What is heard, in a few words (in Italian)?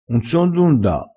"du", crespinese